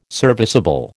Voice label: "serviceable", American